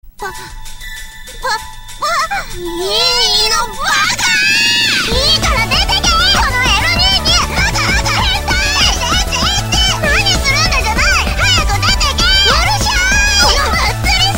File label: Mobile Ringtone Anime Baka